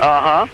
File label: "uh huh", nasty; ooh ahh; uh um